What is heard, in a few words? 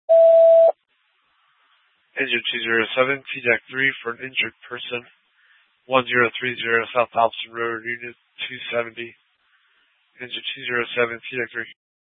FD Dpts VHF 156.240 Patch; TOPAZ Rgnl Wireless Co-op (TRWC)